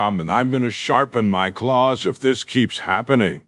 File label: upset; fertig classic games